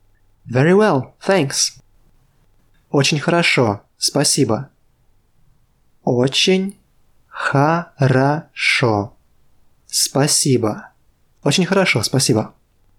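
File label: Russian, Very well thanks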